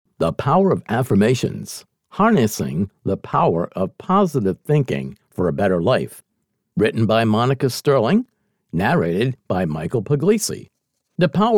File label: Narration; The Power of Affirmations